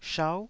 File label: "shau9", taiwanese Syllables